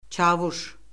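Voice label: "çavuş", Azerbaijani; Orfoqrafiya Lüğəti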